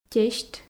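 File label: "тесьт", Komi, female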